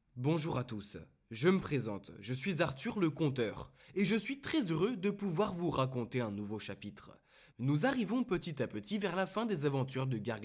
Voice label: French Male